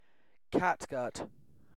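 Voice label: "catgut", British English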